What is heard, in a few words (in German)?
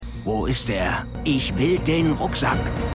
Baertiger Mann; High Potential